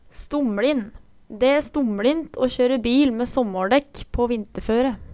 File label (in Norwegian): stomLin, Numedalsmål